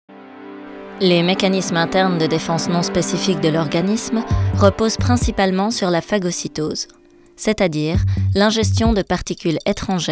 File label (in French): Docu Sciences